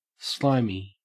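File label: "slimy", Australian English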